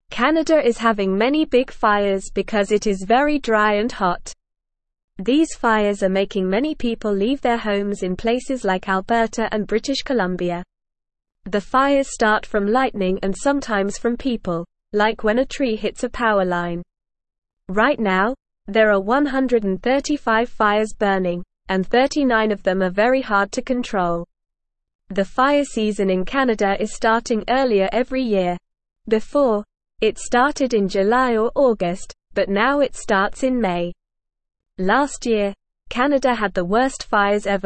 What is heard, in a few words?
English NORMAL Reading; Canadas Big Fires People Leaving Their Homes